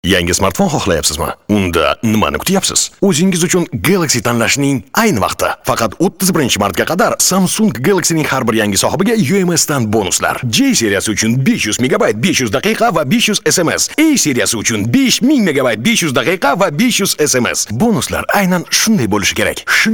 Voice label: Uzbekiston male